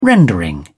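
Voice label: "rendering", English, British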